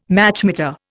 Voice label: "Machmeter", Indian